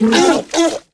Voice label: shocked; Star Wars Obi-Wan; XBOX